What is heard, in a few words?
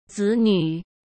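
"子女", Mandarin Chinese; hsk; chinese-flashcards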